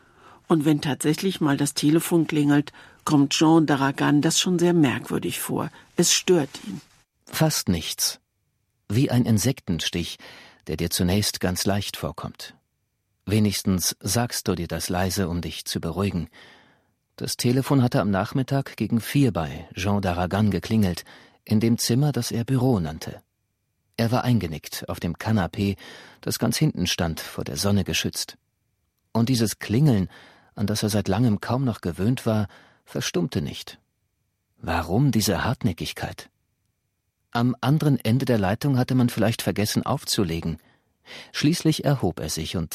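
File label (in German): Hörbuchprobe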